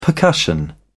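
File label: "percussion", British English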